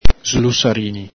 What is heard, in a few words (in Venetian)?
"slusarini", Veneto